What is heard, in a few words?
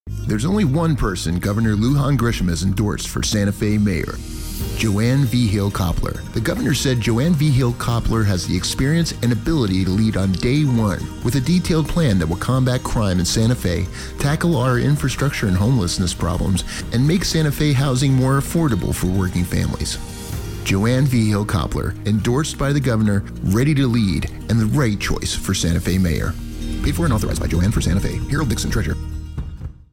male voiceover, Radio